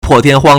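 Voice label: "po4tian1huang1", Mandarin Chinese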